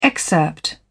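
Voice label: "excerpt", British English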